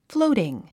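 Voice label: "floating", English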